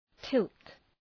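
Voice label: "tilt", English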